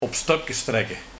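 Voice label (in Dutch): "Op stopkes trekke", dialect; 1 Bedriegen; 2 In slaap doen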